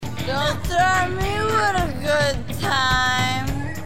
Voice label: threaten; Rock of Love